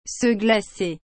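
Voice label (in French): "se glacer", French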